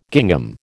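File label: "gingham", American English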